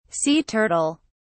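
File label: "sea turtle", English, British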